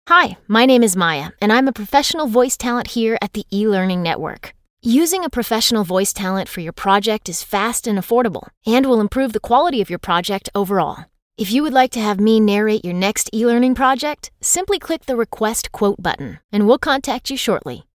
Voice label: eLearning